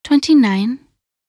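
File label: voc en min 29, English; minute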